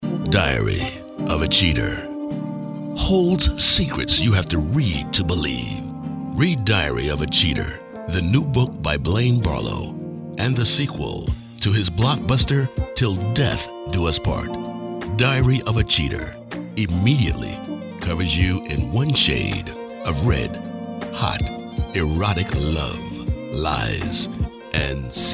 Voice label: SPOT